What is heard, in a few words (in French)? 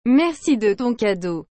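"Merci de ton cadeau", French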